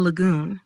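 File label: "Lagoon", English; nature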